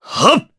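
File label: Vox Casting, Japanese; Dimael; kingsraid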